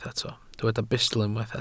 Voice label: "bustl", welsh

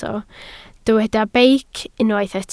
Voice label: "beic", Welsh

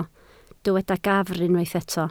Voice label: "gafr", Welsh

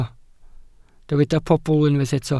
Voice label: "pobl", Welsh

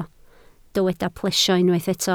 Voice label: "plesio", Welsh

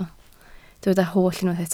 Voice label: "holl", Welsh

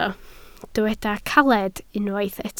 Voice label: "caled", Welsh

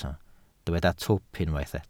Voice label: "twp", Welsh

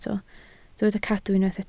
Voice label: "cadw", Welsh

